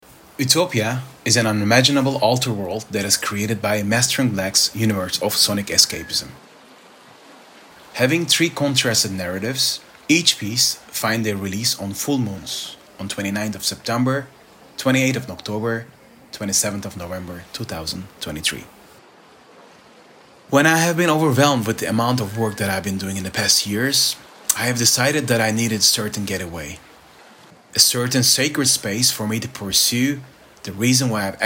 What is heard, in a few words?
narration